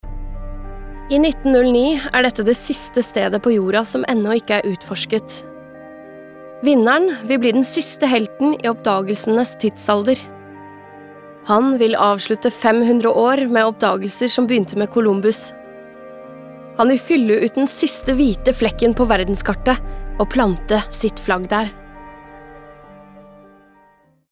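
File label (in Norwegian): dokumentar, Norwegian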